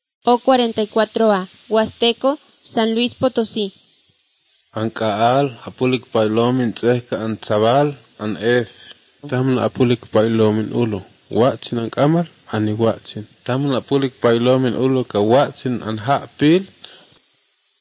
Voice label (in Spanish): spanish mexico